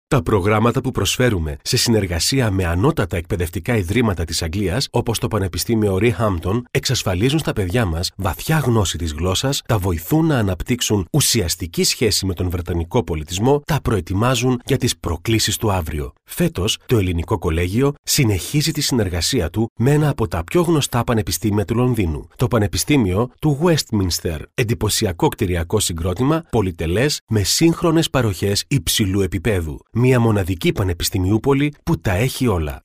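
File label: Greek narration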